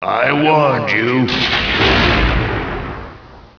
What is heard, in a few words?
annoyed; war2; humans; mage; I warned you <Lightning strike>